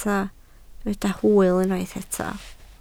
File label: "hwyl", Welsh